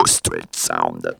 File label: STREET SOUND, Funky Vocals; USB Soundscan vol.18; AKAI